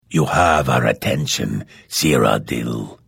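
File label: Hello, Vo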